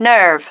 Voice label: "nerve", female